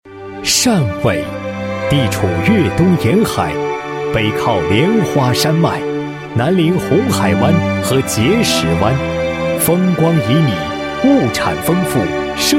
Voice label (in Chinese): 男 汇报; 林业重点生态工程建设中期成效汇报